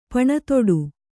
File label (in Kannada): "ಪಣತೊಡು", kannada